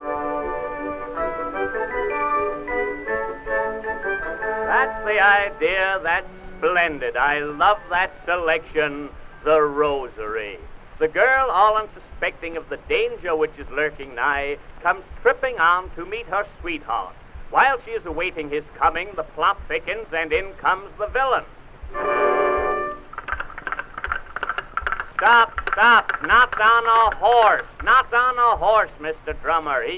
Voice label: monologue, vaudevil; drama; rehearsing the orchestra; vaudeville special